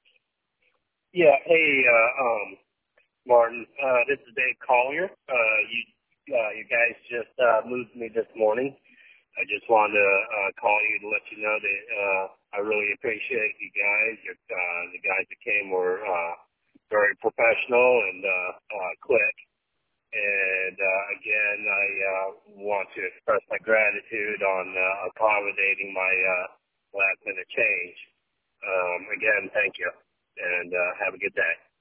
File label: voicemail